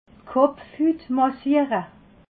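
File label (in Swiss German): "kopfhut", Alsatian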